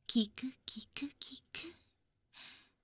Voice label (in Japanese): 添い寝トランス, ASMR; 先生と添い寝; Garden; Teacher